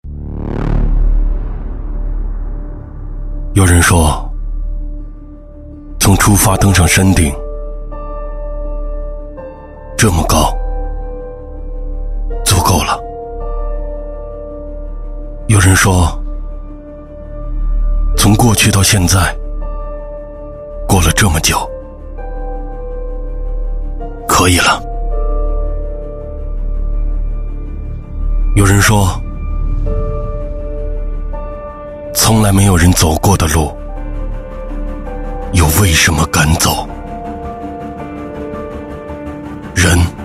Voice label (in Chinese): 男 宣传片; 你好 珠峰 你好 北京; 北汽集团珠峰广告片